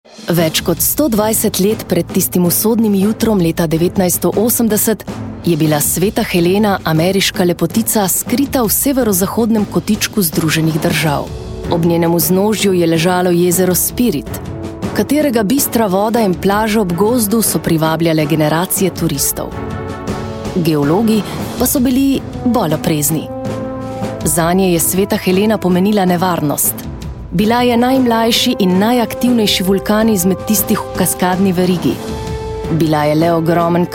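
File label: slovenian female commercial; voice demos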